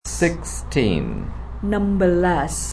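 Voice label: "Nembelas" Javanese; 16 sixteen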